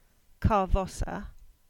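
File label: "Carvossa" English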